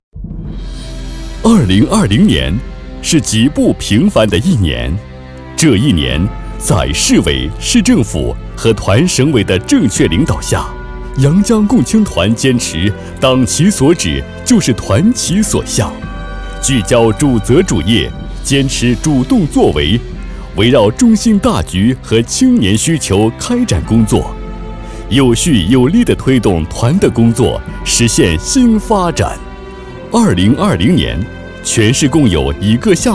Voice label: 激情饱满共青团专题

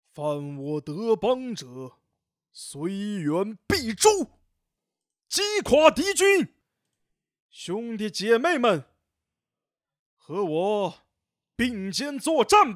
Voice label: 坚毅角色

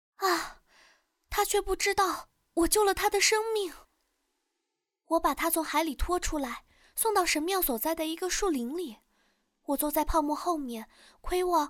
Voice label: 女主; 海的女儿

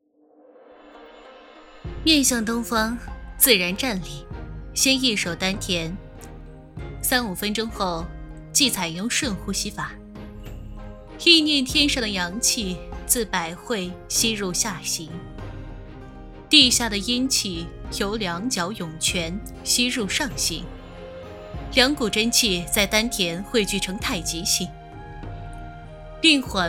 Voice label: 人物配音 古侠 御姐

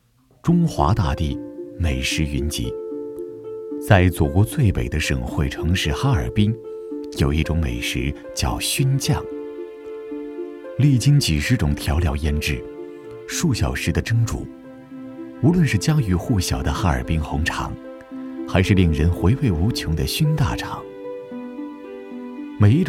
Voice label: 舌尖 风格 熏酱

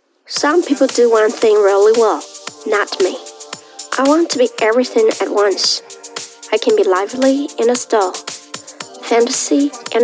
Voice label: 化妆品轻松时尚

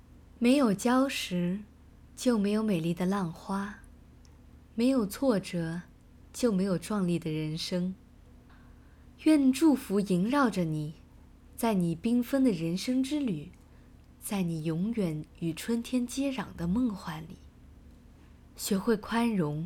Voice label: 女中年

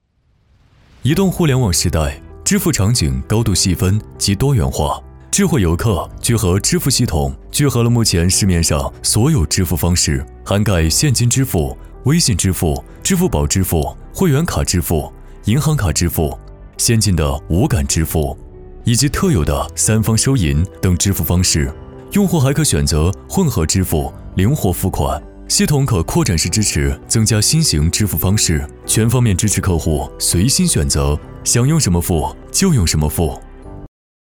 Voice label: 科技感聚合支付

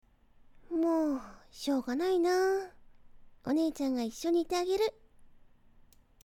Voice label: 少女样音日

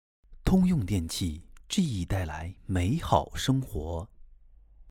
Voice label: 广告词

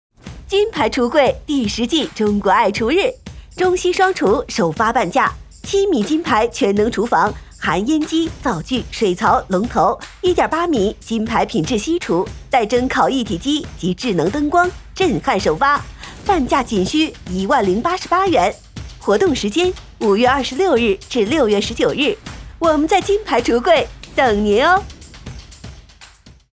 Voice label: 橱柜店面促销; 金牌橱柜

